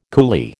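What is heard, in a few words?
"coolie", American English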